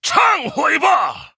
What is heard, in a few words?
Male Human Attack, zh